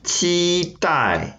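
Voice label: "qi1dai4", Mandarin Chinese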